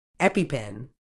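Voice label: "EpiPen", British English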